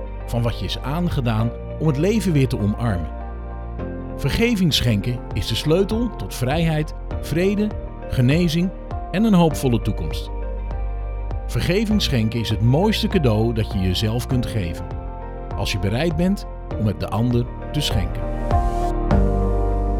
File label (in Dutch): Voice-over voorbeeld boek